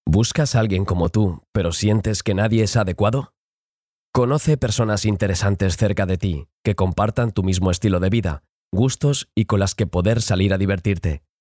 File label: ESP Male